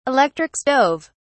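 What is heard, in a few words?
"electric stove", British English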